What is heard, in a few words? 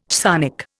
"chthonic", Indian